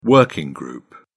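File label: "working group", British English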